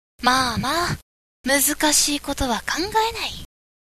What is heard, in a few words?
girl